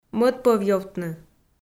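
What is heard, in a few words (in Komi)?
"мӧдпӧвъёвтны", Komi, female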